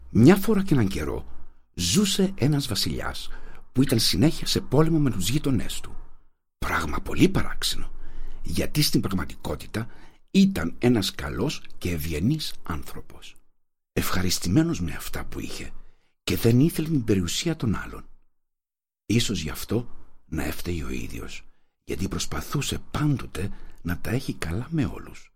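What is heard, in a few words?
Greek male Narration; voice over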